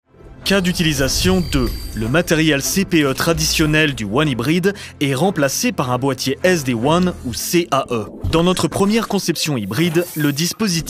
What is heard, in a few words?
Informative (FR)